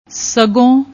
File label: "sgun", Punjabi; ਸਗੋਂ